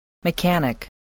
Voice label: "mechanic", spelling voice

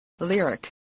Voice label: "lyric", spelling voice

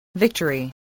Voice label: "victory", English

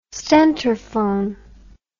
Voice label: "stentorphone", English

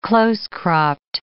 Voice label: "close-cropped", English

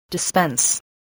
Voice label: "dispense", spelling

